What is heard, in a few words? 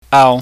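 "au", Cantonese, mid tone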